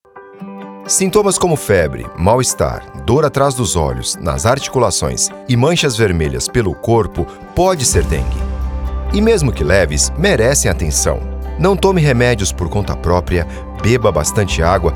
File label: Audio Spot Mosquito